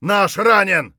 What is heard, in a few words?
friendly hit, voice; Escape from Tarkov